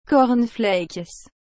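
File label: "cornflakes", French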